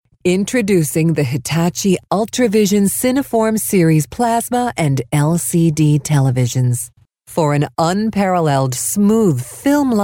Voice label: documentary